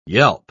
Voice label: "yelp", pronounce